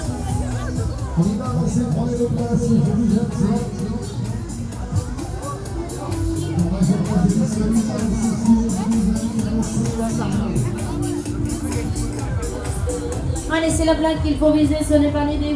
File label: voicetrack